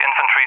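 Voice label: "infantry", English speech; Target; Allied Flight; DCS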